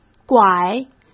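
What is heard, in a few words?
"拐", Mandarin Chinese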